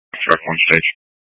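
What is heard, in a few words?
Incident Tactical 1, Scanner audio recording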